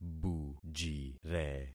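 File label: "bujire", concatenated syllables; Affixing experiment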